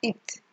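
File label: "it", Nigerian Pidgin, female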